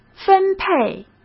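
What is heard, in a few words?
"分配", Mandarin Chinese; chinese flashcards; hsk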